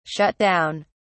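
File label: "shut down", British English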